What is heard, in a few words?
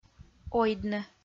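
"ойдны" Komi-Zyrian, female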